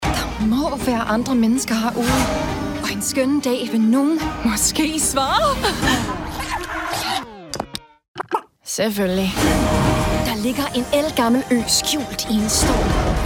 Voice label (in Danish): Danish Language Version; Moana 2